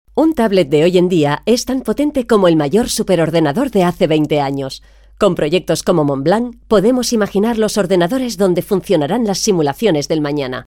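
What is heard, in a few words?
female Spanish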